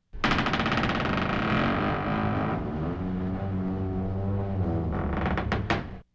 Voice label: xsynth speech car mast